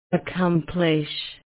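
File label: "accomplish", Australian female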